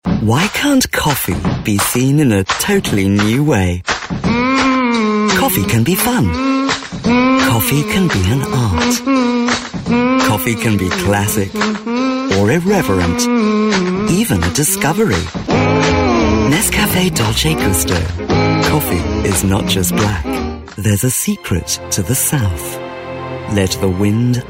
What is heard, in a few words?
commercials